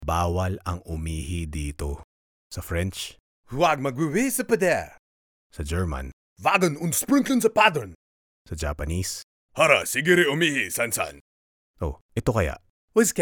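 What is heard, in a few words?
Tagalog Male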